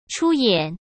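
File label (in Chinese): "出演", Mandarin Chinese; chinese flashcards; hsk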